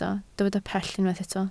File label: "pell", Welsh